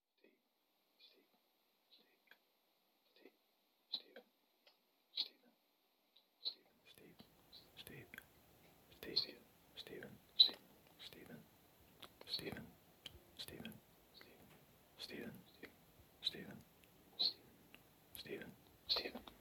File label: ASMR Whispers